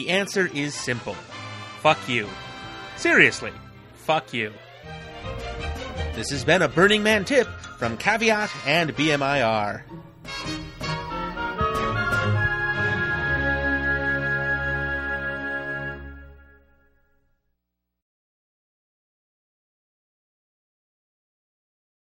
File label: Short PSA Internet Connection